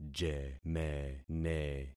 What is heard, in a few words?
"jemene", concat syllables; Affixing experiment